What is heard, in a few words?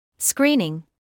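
"screening" English, British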